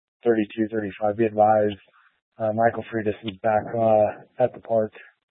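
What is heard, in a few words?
Incident Tac 3, Scanner audio recording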